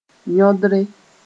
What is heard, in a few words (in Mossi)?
"yõdre", moore